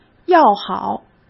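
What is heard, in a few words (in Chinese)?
"要好", Mandarin Chinese; hsk; chinese-flashcards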